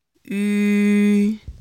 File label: chinese pronunciation u yu